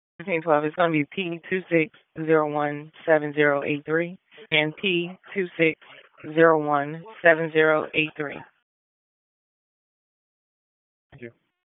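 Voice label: Police Northeast District Disp, radio; Raleigh; p25; wake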